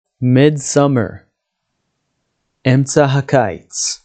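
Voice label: "midsummer" English, "אמצע הקיץ" Hebrew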